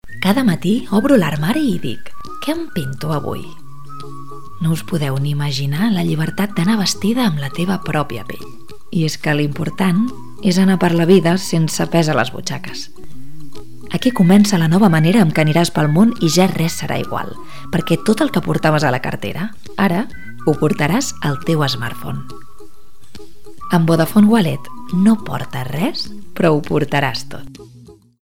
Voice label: female catalan phone comercial; ideasonora